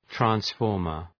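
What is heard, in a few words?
"transformer", English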